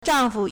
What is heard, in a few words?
"zhang4fu", Chinese